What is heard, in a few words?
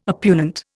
"oppugnant", Indian